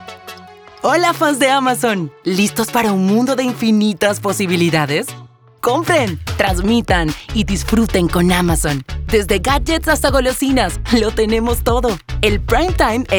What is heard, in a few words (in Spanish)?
amigable moderno